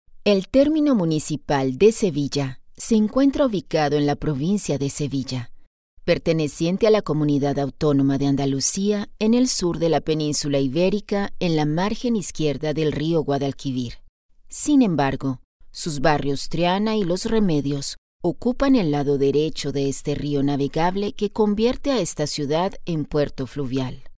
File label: locutora espanol neutro latin american voiceover; locutora latinoamericana; locutora de español neutro